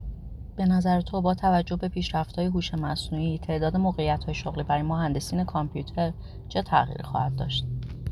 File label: persian prompt